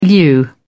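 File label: "lieu", British English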